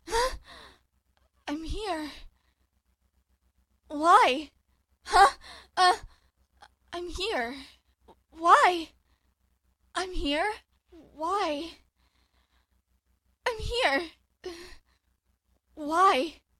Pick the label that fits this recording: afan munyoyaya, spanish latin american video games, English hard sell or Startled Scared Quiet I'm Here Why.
Startled Scared Quiet I'm Here Why